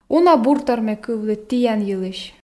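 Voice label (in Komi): "уна буртор ме кывлі тіян йылысь", Komi, female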